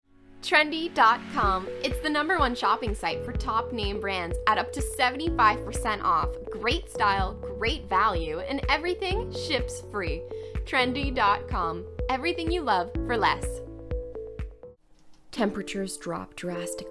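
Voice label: Commercial voice over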